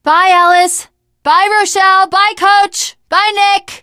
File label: teengirl, voice; Left 4 Dead 2; l4d1 finale